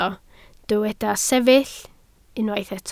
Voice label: "sefyll", Welsh

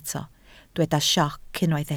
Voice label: "sioc", Welsh

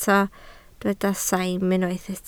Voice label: "saim", Welsh

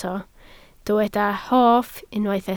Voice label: "hoff", Welsh

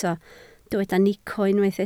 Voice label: "nico", Welsh

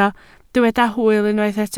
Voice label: "hwyl", Welsh

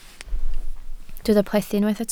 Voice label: "plethu", Welsh

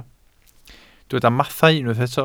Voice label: "mathau", Welsh

